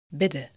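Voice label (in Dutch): "bidden", Dutch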